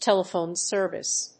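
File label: "TELEPHONE SERVICE", English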